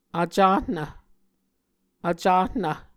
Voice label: "vcahnv", Muscogee